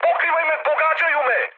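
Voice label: Serbian aircraft damaged mood high, speech; War Thunder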